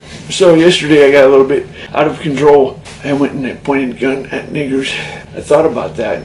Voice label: chat